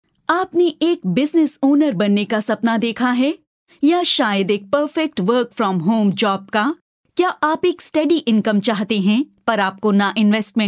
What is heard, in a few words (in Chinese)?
外籍印女 轻快 广告